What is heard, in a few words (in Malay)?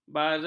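"bazar", Malay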